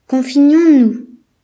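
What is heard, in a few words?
"slippers", Breton; gcompris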